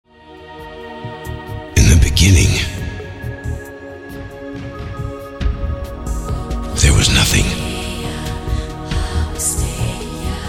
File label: Trailer